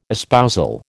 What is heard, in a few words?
"espousal", American